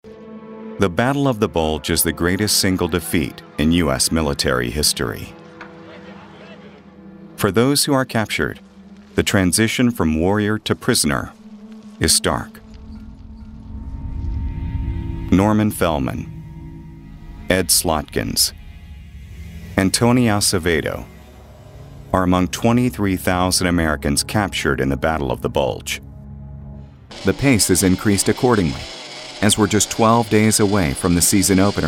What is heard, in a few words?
Documentaries